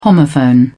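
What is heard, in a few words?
"homophone", British English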